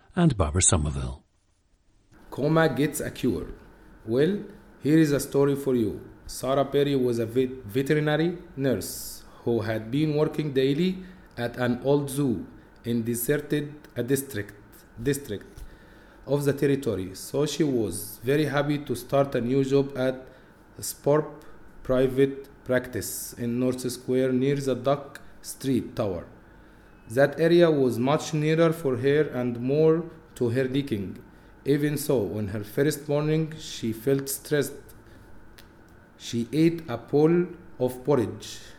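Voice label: egypt, International Dialects of English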